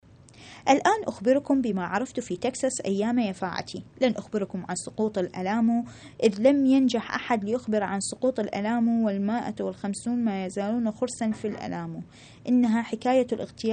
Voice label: arabic